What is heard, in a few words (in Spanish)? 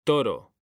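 "toro", Spanish; mekanta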